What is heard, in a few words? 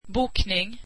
swedish reservation